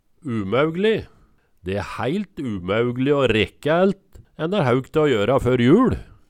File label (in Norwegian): umaeugli, Numedalsmål